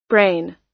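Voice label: "brain", US English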